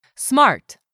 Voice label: "SMART", english; 3000 words

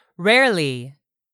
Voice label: "rarely", English; 3000 words

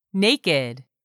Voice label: "naked", English; 3000 words

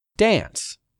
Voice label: "dance", English; 3000 words

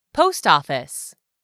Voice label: "POST OFFICE", English; 3000 words

